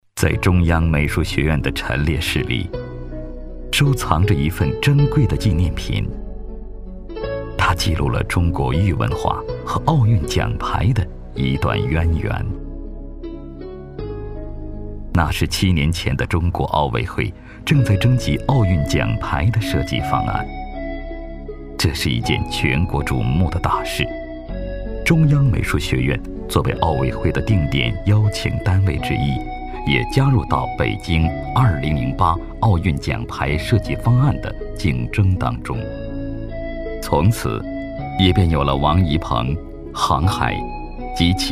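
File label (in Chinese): 男国大音-专题-浑厚; 盛世美玉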